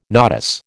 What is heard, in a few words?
"nodus", American